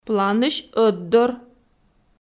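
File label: "планысь ӧтдор", Komi, female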